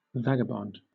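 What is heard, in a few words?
"vagabond", British English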